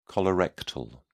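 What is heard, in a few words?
"colorectal", British English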